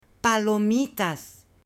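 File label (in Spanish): "palomitas" Spanish, male; ALIMENTOS; AppLenguaje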